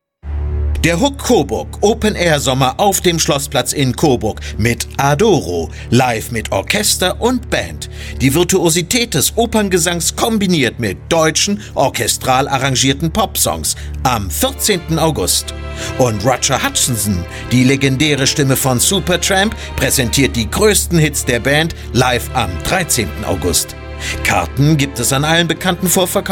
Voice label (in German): Spot